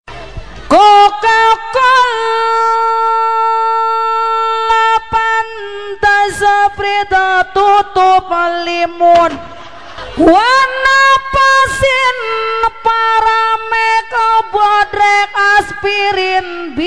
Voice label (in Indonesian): Bapak Ngaji